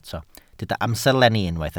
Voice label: "amserlenni", Welsh